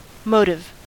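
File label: "motive", American English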